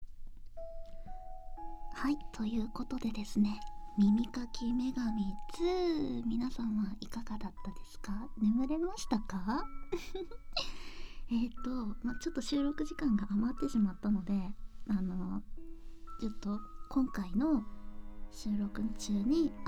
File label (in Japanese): フリートーク, ASMR